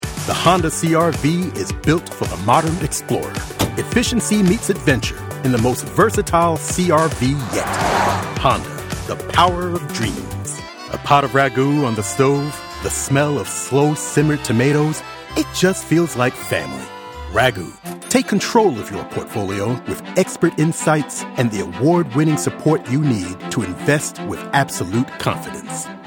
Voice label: Commercial